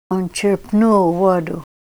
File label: He dipped water, Ninilchik Russian; chirpnút'